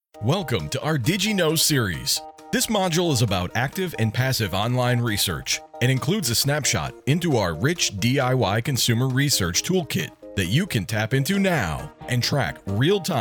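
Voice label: eLearning, Warm, approachable, dynamic voiceover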